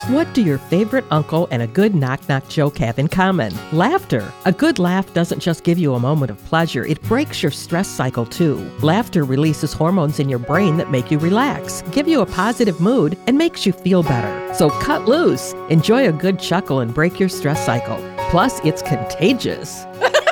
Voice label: Female; Laughter